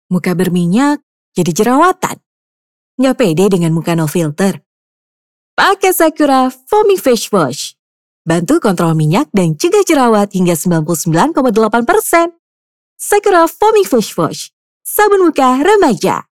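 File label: Indonesian casual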